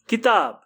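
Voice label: "book", English; grade1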